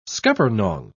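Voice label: "scuppernong", English, British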